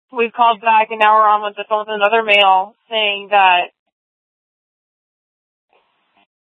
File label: Police South Dispatch; Regional Wrlss Cooperative (RWC) - Smlcst H- Scttsdle